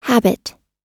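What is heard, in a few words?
"habit", English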